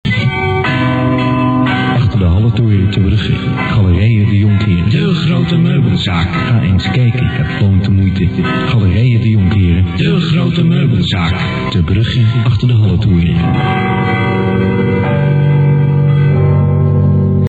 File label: commercial